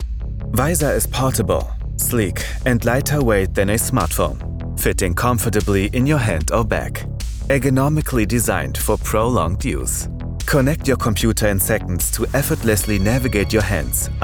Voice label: English european accent

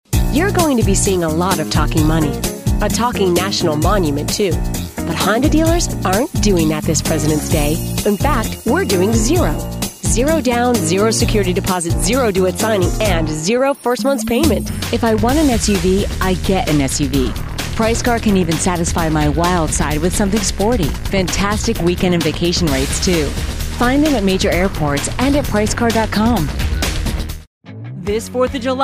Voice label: Hard Sell Car

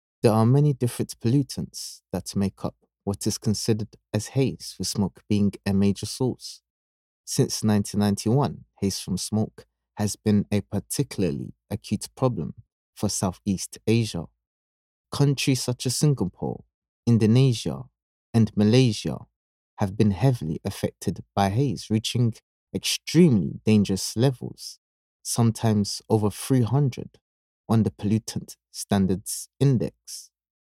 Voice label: narration voice

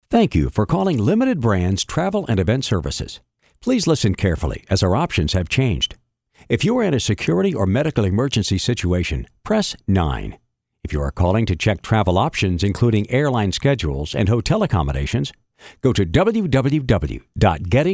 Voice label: on-hold

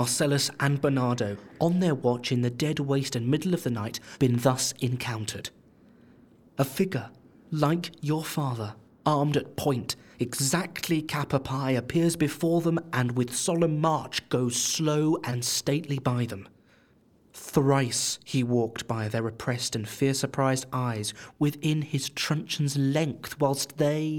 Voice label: Hamlet Classic Drama

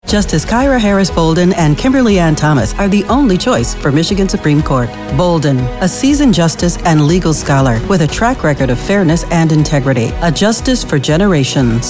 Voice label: Commercial Political

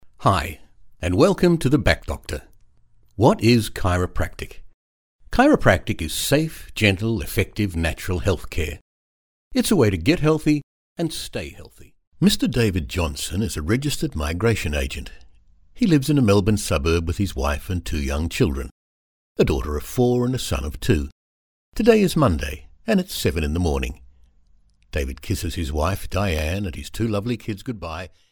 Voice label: Corporate Reads

